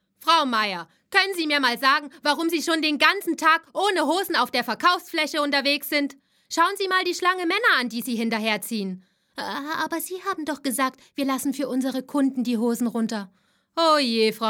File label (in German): Werbung; Warenmarkt